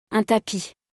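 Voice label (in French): "un tapis", French; vocabulaire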